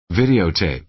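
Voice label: "videotape", American English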